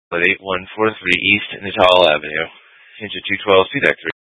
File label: FD Dpts VHF 156.240 Patch